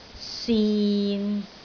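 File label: "sin6", Cantonese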